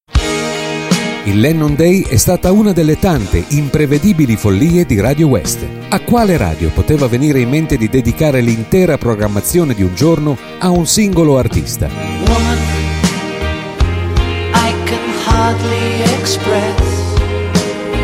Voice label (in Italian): narrazione; lennon; lennon day 1983